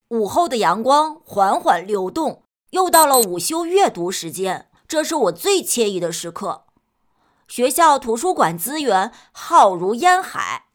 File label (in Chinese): 女 童声 男童